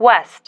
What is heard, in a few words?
"west", female